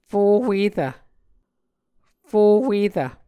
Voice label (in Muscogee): "fo huerv", Muscogee